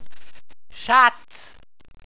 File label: "schatz", Walser